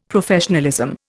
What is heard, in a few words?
"Professionalism", Indian; Dictionary